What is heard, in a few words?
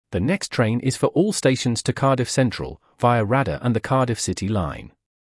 announcement cardiff via city english; operator